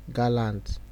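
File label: "gallant", Nigerian Pidgin, male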